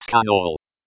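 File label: Scan All, Computer Voice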